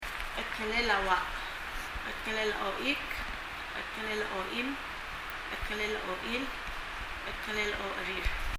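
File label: "cheldngelel a oach" Palauan, toe; 足指; 発音; 身体の各部位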